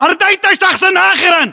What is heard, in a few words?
inform kill firm infantry, battle chatter, english